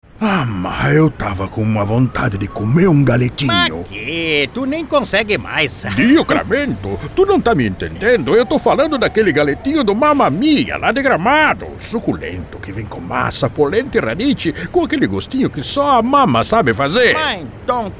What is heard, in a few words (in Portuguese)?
Spot